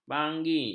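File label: "banggi", Malay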